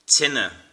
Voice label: "fire", irish